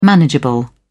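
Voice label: "manageable", English, uk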